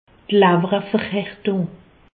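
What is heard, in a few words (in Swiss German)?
"lawwerverhartung", Alsace, Haut Rhin; medecine; cirrhose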